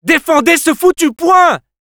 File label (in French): Scout help me defend, fr